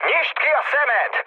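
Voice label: player friendly fire, mood med, Hungarian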